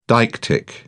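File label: "deictic", British English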